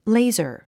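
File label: "laser", English word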